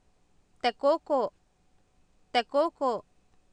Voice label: "tekooko" Nahuatl, Oapan, female; Oapan minimal pairs 2000